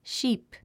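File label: "sheep", English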